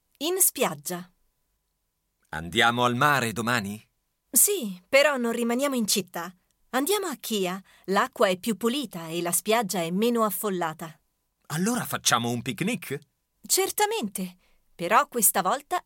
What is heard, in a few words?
Dialogue, Italian